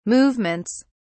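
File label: "movements", British English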